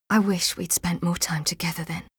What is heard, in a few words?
Female; Skyrim Special Edition; Dawnguard Beleval